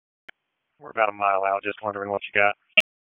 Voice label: PS TAC, p25